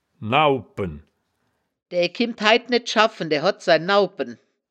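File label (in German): "naupen", wittlicher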